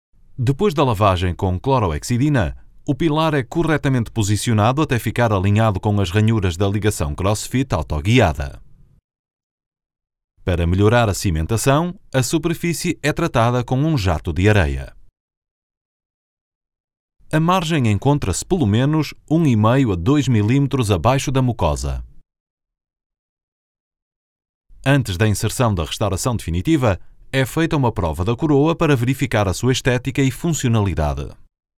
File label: European Portuguese; foreign languages